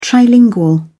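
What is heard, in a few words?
"trilingual", British English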